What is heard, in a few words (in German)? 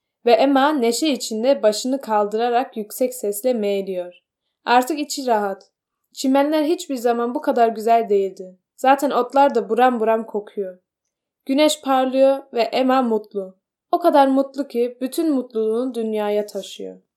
türkisch